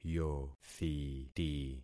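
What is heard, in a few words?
"yothiti", concatenated syllables; Affixing experiment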